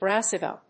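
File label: "Brassica", English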